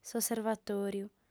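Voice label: "s'osservatòriu", lingua sarda, female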